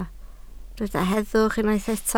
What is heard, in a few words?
"heddwch", Welsh